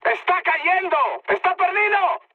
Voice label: aircraft destroyed mood high; War Thunder

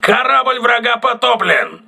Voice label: voice message enemy destroyed, crew ships russian

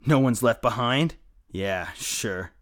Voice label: mutter; Escape from Tarkov

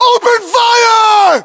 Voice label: order attack infantry, US

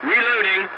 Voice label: voice message reloading, english; War Thunder

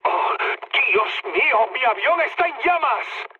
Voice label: on fire, mood high, speech; War Thunder

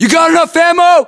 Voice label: status check, us; localized english; battlechatter

